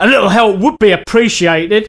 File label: Help, male, voice commands; svencoop